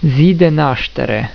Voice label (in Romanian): "zi de nastere", romanian; tijden